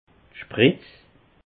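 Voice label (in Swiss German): "spritz", Alsatian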